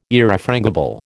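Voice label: "Irrefrangible", American English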